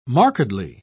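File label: "markedly", English, British English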